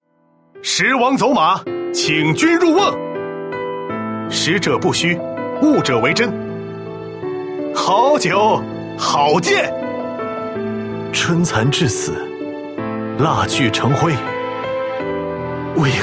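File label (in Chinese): qingnian, young man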